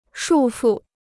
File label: "束缚", Chinese